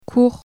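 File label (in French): "cour", French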